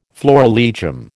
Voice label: "florilegium", American

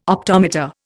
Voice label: "optometer", Indian

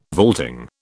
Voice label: "vaulting", British

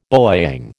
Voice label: "bowyang", American

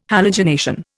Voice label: "halogenation", Indian

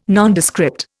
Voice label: "Nondescript", Indian

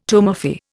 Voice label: "tumefy", Indian

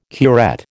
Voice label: "curette", American

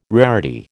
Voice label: "rarity", American

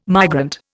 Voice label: "migrant", Indian

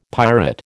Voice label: "pirate", American

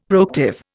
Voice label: "proactive", Indian; Dictionary

